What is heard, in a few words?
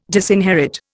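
"disinherit", Indian English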